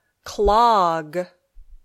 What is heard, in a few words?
"clog", English